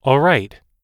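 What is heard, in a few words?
"all right", American English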